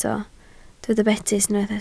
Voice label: "betys", Welsh

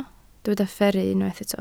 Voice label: "fferru", Welsh

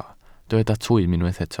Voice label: "twym", Welsh

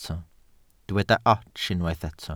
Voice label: "ots", Welsh

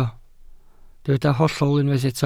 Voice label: "hollol" Welsh

